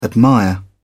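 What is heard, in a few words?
"admire", British English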